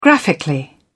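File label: "graphically", British English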